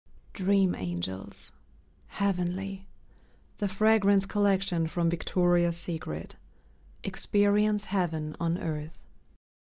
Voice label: COMMERCIAL US; VICTORIAS SECRET